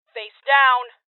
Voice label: Order To Surrender Female, Voices